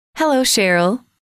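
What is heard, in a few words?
"hello sheryl", female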